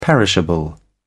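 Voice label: "perishable", British English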